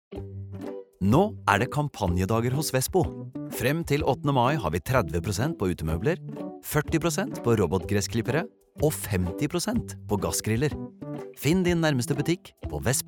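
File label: Norwegian reklame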